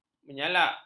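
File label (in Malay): "menyalak", Malay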